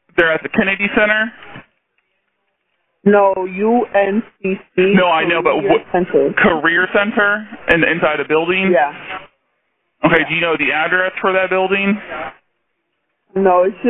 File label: 911 call; 9201 University City Bv